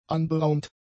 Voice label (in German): "anberaumt", German; adjectives